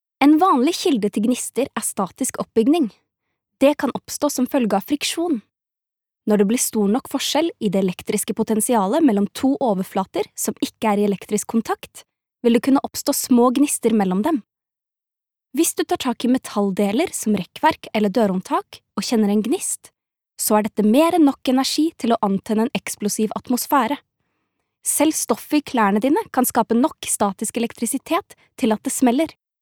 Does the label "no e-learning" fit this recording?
Yes